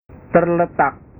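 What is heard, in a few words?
"terletak", Indonesian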